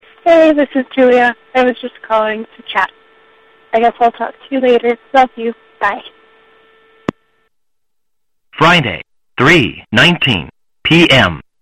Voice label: answering machine messages, calls to chat